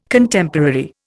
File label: "Contemporary", Indian